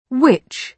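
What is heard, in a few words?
"witch", British English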